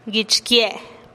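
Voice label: "guich kie'", mi cabello, Teotitlán del Valle Zapotec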